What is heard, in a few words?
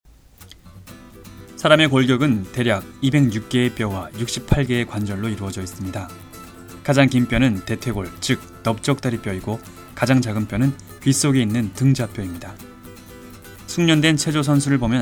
kor; MediaVoix; vice sample